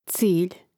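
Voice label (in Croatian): "cilj", Hrvatski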